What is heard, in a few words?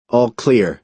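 "all clear", American English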